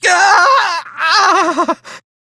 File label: Death, Male; Dialog; Warframe; Railjack Crew; Perrin Sequence